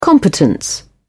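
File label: "competence", British English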